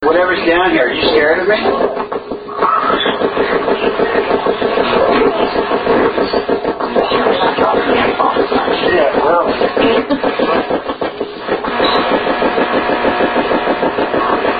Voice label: Basement come get me, e-v-p; DVR